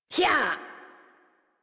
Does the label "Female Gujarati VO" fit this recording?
No